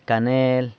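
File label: "canel", San Lucas Quiaviní Zapotec; cinnamon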